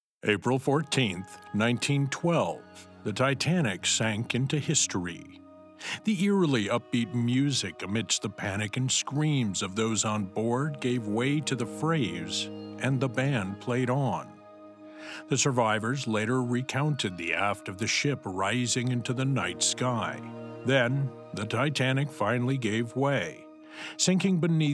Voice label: English